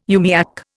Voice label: "umiak", Indian

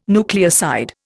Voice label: "nucleoside", Indian

